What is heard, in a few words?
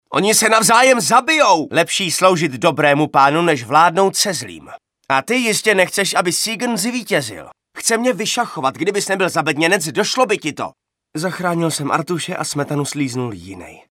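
Male Czech drama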